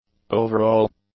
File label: "overall", English; adjectives